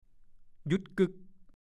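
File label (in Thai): "หยุ๊ดกึ่ก" ภาษาโคราช; หยุดทันที หยุดชะงัก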